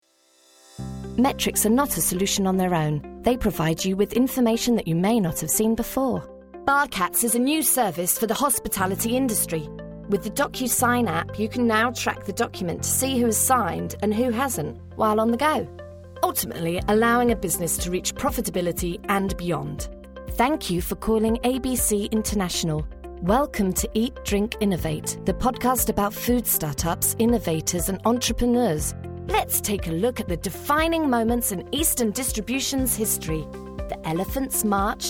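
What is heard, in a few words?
British; UK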